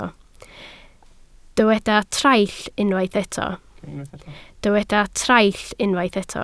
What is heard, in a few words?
"traill", Welsh